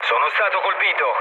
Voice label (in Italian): aircraft damaged, mood low, speech it